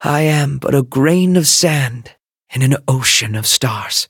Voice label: touch, en; Cookie Run